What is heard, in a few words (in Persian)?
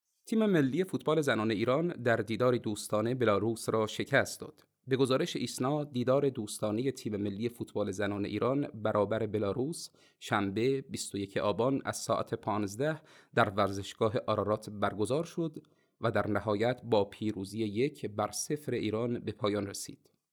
نمونه صدای سوم خبر; گوینده